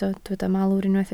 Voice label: "malwr", Welsh